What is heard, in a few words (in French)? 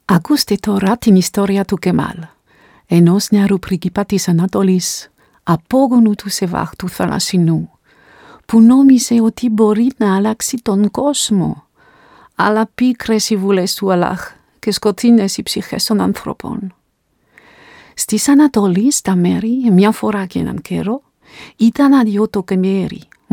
langue grec